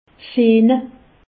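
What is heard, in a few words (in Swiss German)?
"sehne", Alsatian; medecine; tendons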